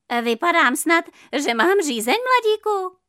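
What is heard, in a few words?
female Czech drama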